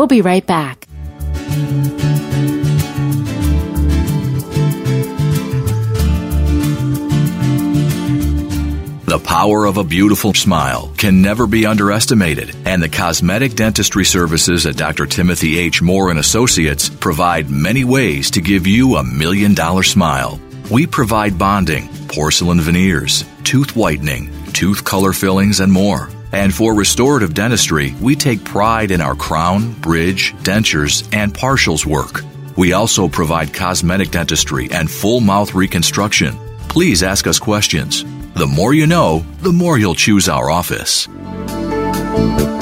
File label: General Dental Male